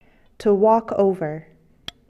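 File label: "walk over", English; verb; fichiers sons du vocabulaire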